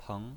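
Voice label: "peng" second tone, pinyin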